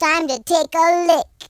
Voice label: dragon punch, Gangsta; speech

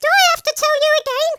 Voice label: uhoh, Nagging Wife

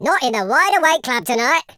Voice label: boring, Footy Fan speech

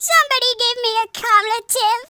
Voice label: "take cover", Grandpa

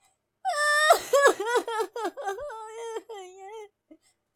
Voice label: sad; speech

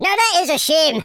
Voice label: bummer, Scotsman; speech

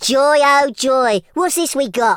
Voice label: "incoming", Teenage Angst speech

